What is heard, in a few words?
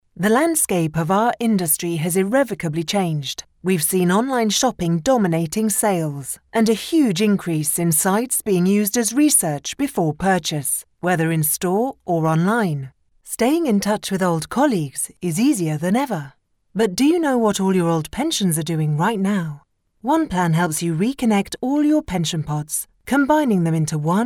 Corporate